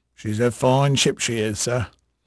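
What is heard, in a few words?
English male, voice; Pirates Of The Caribbean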